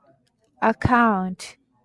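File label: "account", Japanese, female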